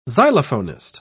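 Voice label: "xylophonist", British English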